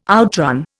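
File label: "outrun", Indian English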